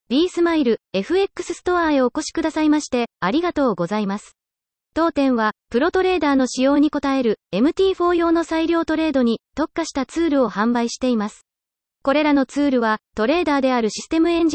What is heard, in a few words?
store guide